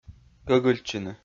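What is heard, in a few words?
"гӧгыльтчыны", Komi, male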